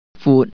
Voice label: wide, cantonese